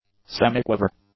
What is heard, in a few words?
"semiquaver", English; nouns